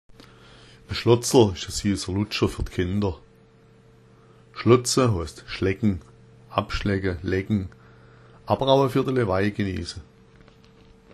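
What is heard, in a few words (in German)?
"schlotzer", schwäbisch; En Schlotz’r ist süßer Lutscher für die Kinder (Lolli), schlotza heißt schlecken, abschlecken, lecken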